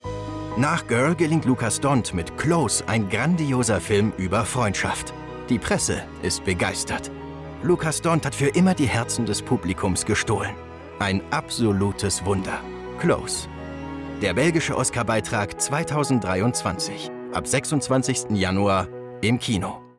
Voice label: nationaler Radiospot fuer den Kinofilm

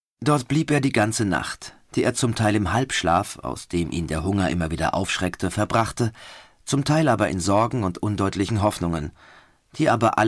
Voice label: erzaehlung

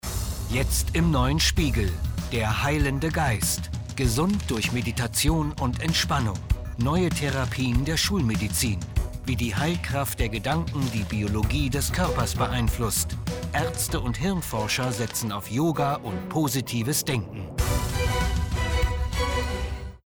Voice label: tv spot; spiegel

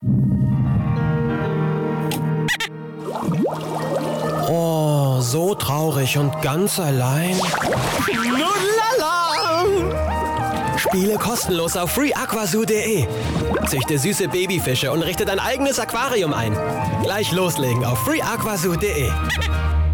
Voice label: tv werbespot; free aqua zoo

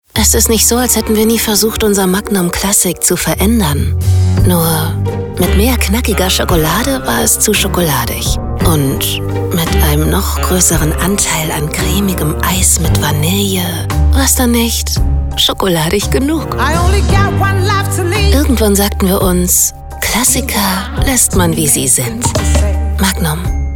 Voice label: Werbung; Magnum

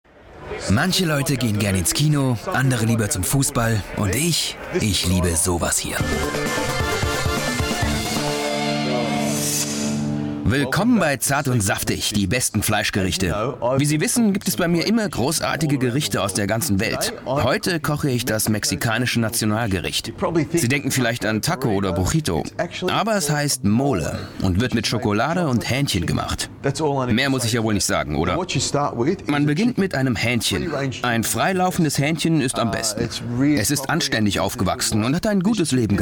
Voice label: TV Food Serie durchgehende Stimme Hauptrolle; ZartSaftig

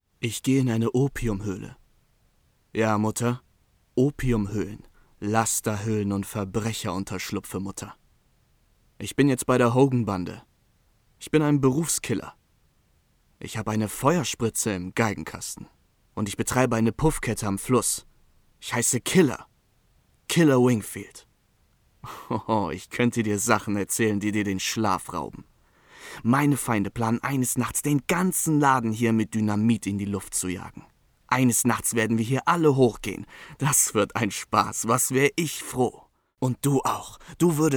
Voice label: hoerbuch drama

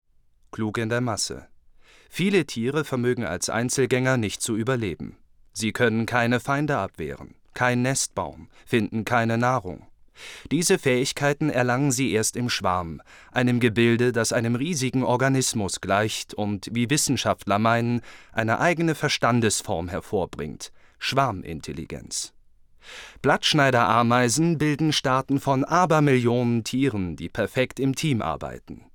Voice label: dokumentation

